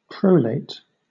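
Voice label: "prolate", British English; pronunciations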